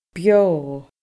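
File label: "beo", Scottish Gaelic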